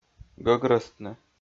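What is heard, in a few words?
"гӧгрӧстны", Komi, male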